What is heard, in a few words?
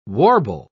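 "warble", spoken word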